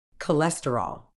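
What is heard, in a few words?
"cholesterol", British English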